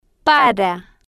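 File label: "para", Spanish, female, slow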